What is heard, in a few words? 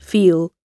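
"feel", British English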